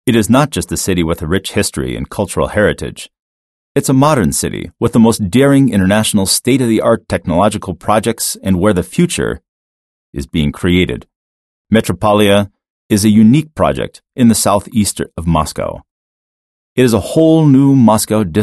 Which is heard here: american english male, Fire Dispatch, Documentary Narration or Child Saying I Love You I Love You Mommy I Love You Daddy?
american english male